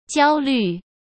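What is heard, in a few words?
"焦虑", Mandarin Chinese; chinese flashcards; hsk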